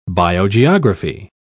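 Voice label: "biogeography", English, uk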